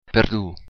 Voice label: sorry, occitan